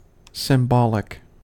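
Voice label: "symbolic", American English; pronunciation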